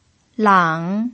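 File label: "lang", Mandarin Chinese pinyin, tone 3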